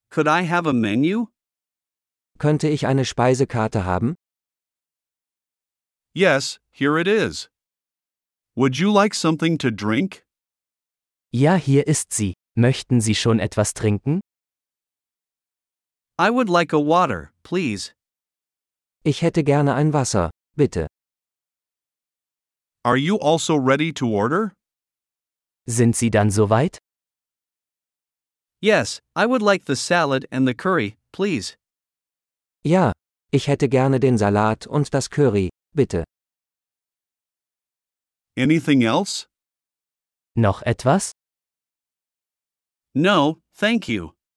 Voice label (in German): Dialog mit Uebersetzungen